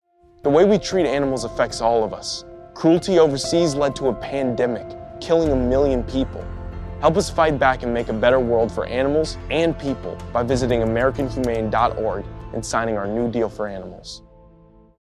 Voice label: Radio; Marcus Scribner

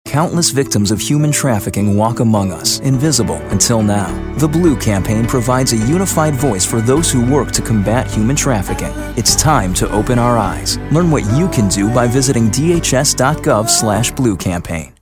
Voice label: Radio English; DHS; Blue Campaign

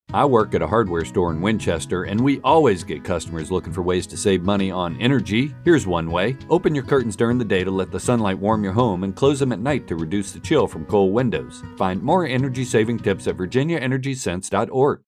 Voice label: Radio; Shenandoah Winchester

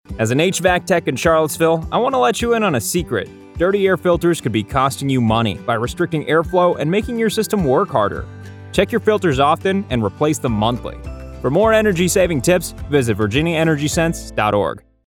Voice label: Radio; Central VA Charlottesville

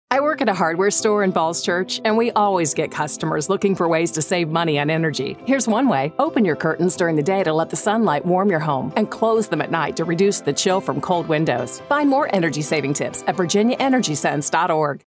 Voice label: Radio; Northern VA Falls Church

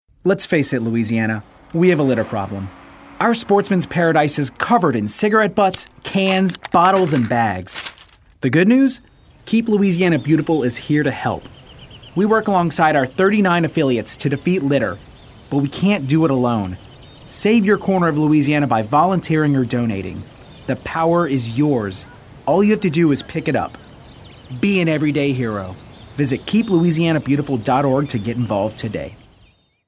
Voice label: male

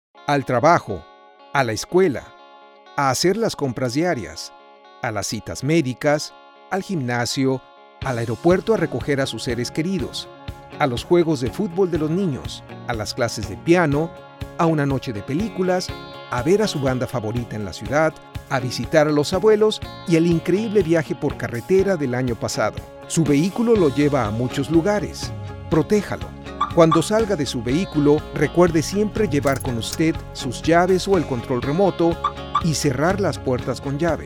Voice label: Spanish; NICB

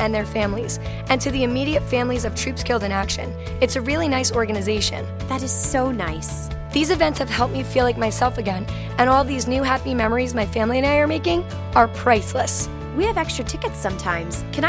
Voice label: What gives Female; Vet Tix

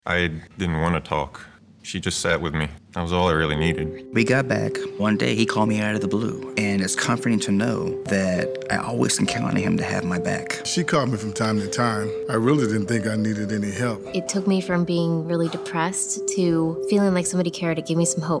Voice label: be there eng radio

